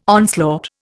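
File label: "onslaught", Indian English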